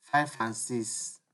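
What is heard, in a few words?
"five and six", Nigerian Pidgin, male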